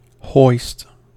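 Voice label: "hoist", US English; pronunciations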